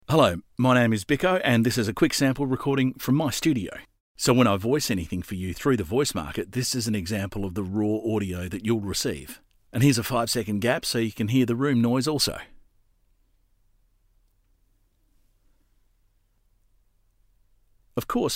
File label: Studio Sound Check